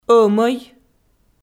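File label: "ӧмӧй", Komi, female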